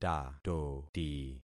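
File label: "tatoti", concatenated syllables; Affixing experiment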